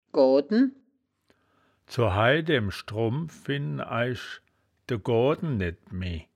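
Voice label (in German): "goaden", wittlicher woerterbuch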